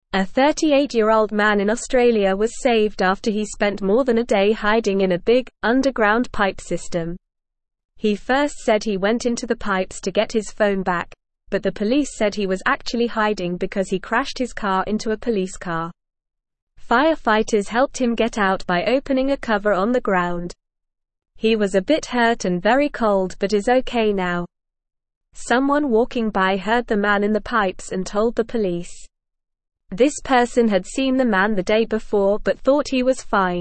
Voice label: English Newsroom Lower Intermediate NORMAL Reading; Man stuck in pipe after hitting police car